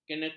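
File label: "keneker", Malay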